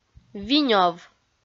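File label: "винёв", Komi, female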